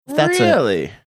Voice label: drawn out really

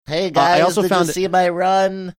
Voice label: saying hey guys did you see my run funny

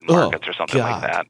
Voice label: repulsed oh god

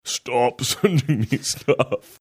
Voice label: saying stop sending me stuff in deep weird voice

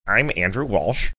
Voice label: saying im nasally

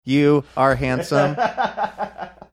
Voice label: you are handsome computer

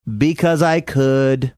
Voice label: saying because i could singsongy

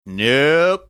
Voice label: drawn out "nope"

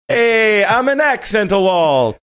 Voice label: saying ay im an accent wall faux italian

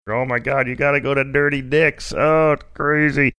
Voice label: funny; oh my god you gotta go to dirty dicks

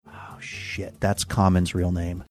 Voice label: quietly saying oh sit that's common's real name

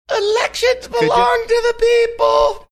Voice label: saying elections belong to people funny

